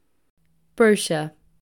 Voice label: Brit Brochure, british english